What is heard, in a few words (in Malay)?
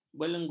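"belenggu", Malay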